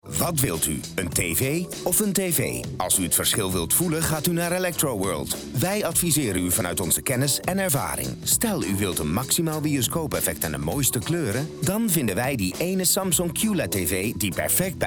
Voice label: radiocommercial TVs